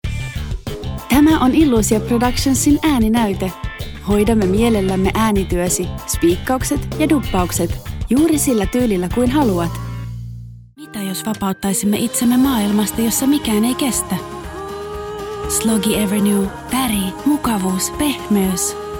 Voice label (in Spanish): comercial finlandés